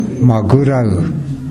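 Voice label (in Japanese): "magurau", 南山形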